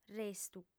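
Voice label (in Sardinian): "restu", female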